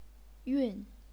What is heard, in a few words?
"yun4", chinese pinyin